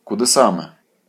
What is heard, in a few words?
dialogue, Ukrainian